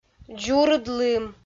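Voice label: "дзурыд лым", Komi, female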